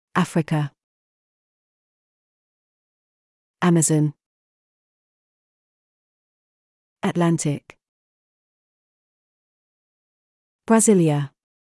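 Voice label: British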